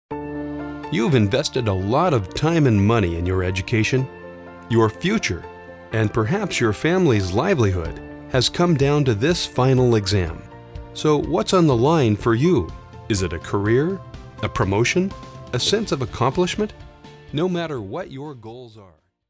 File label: Product videos, English, North American, Middle age; VoiceBunny; Male